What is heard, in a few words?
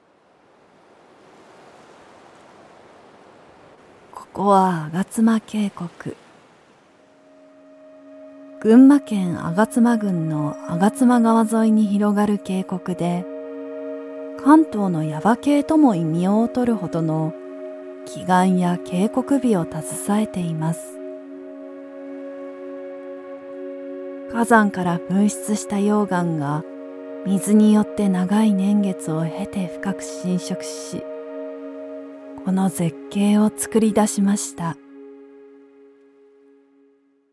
japanese documentaries